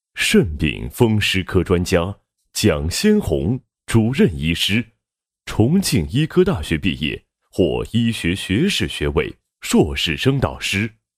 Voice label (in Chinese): 男 医学; 中国中西医结合学会围手术期专业委员会十年发展之路